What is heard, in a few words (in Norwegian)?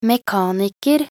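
"mekaniker", Norwegian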